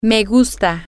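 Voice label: I like, Spanish, female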